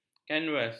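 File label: "kanvas", Malay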